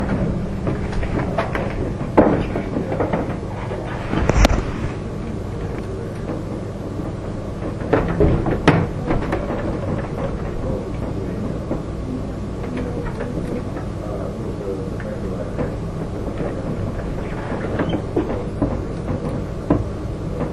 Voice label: basement whispers; basement whispers when I was in the basement (just past 9.5 sec); Springfield Carriage Co; 1 minute after prickly legs